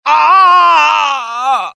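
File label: death, dialogue generic Italian; mohaa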